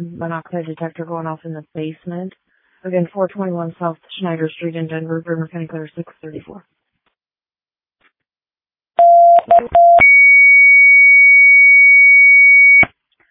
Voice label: Fire, dispatch

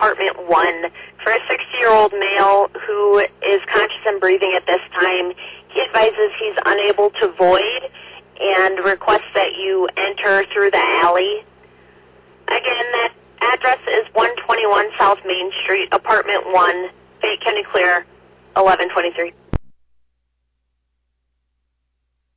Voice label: Ambulance, dispatch

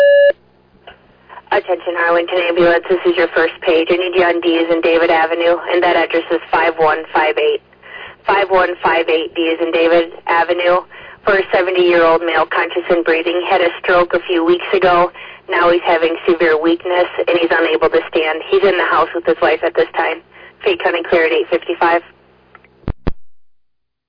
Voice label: Arlington Fire, dispatch

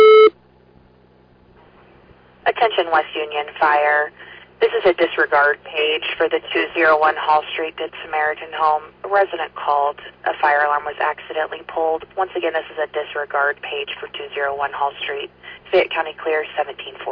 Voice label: West Union Fire, dispatch

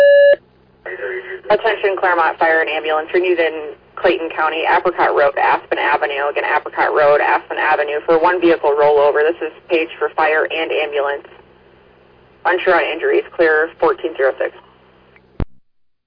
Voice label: Fire, dispatch; Clermont

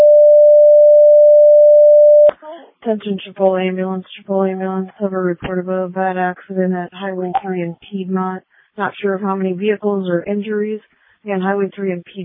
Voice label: fire, dispatch; Readlyn

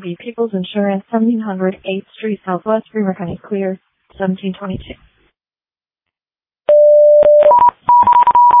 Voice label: Waverly Fire, dispatch

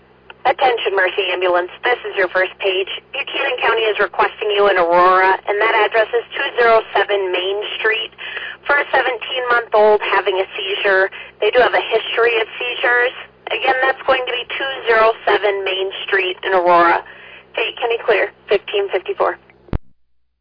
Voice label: Oelwein Ambulance, dispatch

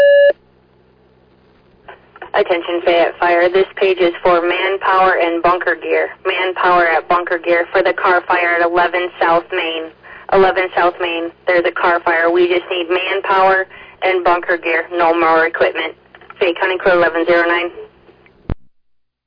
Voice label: Fire, dispatch

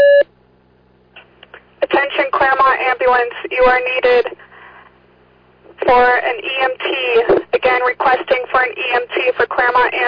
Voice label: Fire, dispatch; Clermont

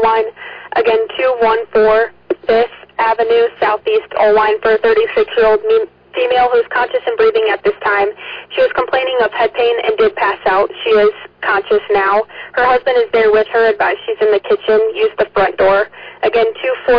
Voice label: Oelwein Ambulance, dispatch

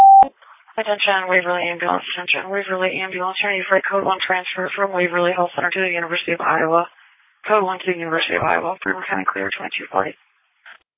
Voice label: Waverly Ambulance, dispatch

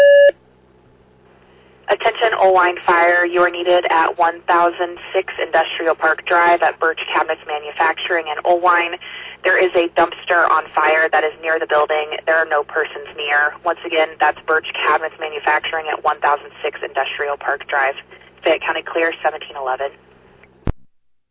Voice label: Fire, dispatch

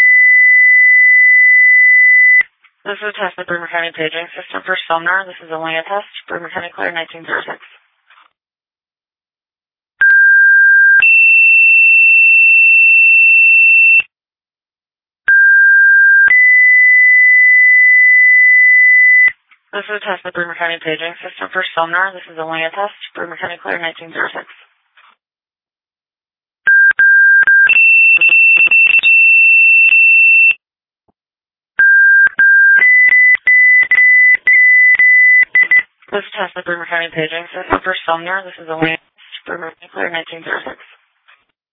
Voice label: Fire, dispatch; Sumner

